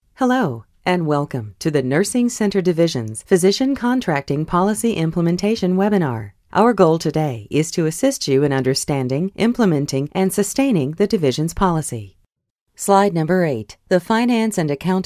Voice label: E-Learning